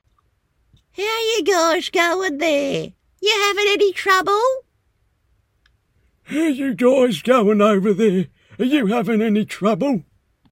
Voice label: old, en-AU

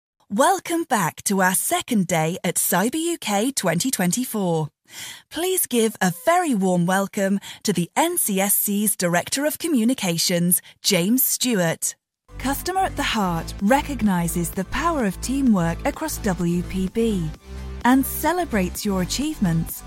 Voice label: Voice Of God

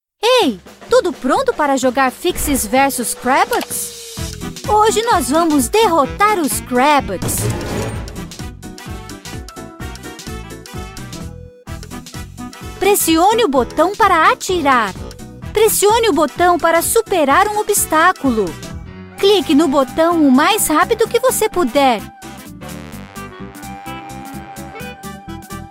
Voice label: Kids Voice; Fixies; Crabots

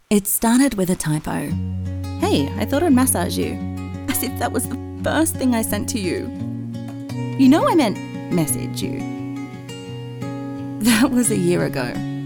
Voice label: Commercial

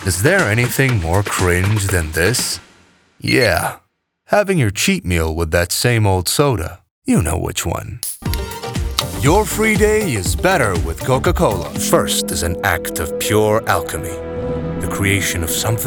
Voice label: Commercial

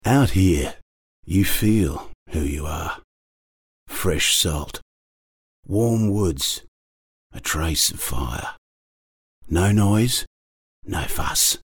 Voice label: Bloke

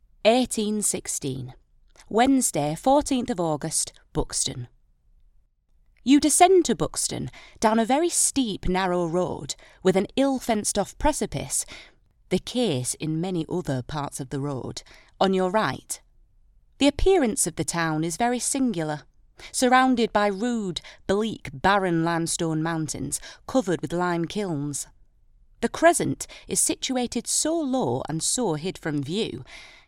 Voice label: Audiobook

